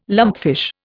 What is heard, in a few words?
"lumpfish", Indian